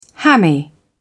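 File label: "hammy", British English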